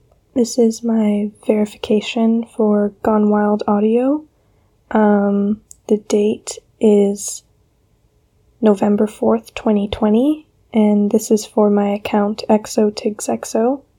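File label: Female Verification Request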